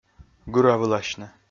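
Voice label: "гыравыласьны", Komi, male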